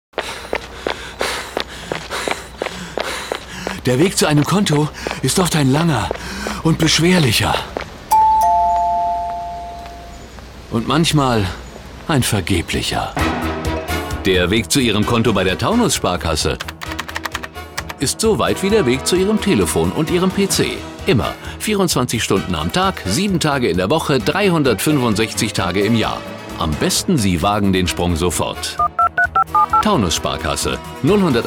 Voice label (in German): Werbung, Dynamisch